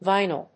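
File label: "vinyl", English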